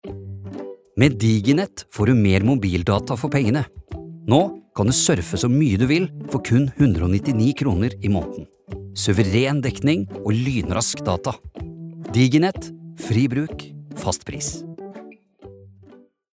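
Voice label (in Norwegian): reklame, Norwegian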